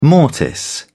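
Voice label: "mortise", British English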